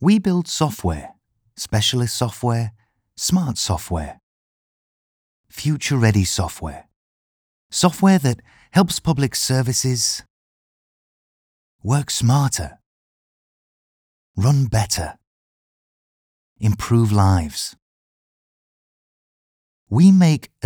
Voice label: voiceover; civica brand impact film; civica brand impact video 2021